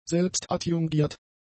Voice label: "selbstadjungiert", German; adjectives

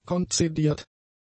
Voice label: "konzediert", German; adjectives

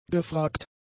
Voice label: "gefragt", German; adjectives

